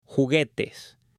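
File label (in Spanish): "juguetes", Spanish, male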